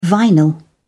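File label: "vinyl", English, British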